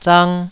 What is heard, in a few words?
"zang2", taiwanese Syllables